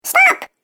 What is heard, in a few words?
Cartoon Game Voice "Stop"; Cartoon Voice Sound Effects